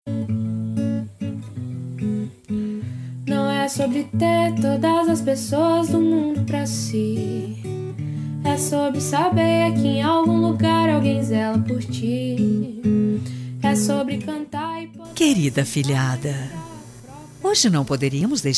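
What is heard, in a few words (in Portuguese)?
ANIV AFILHADA female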